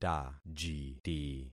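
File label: "tajiti", concatenated syllables; Affixing experiment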